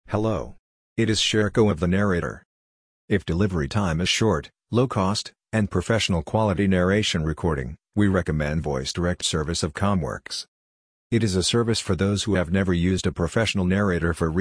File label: speech eng m